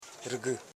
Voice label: "ri gi", Koro house parts